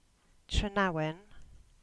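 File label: "Trenawin", English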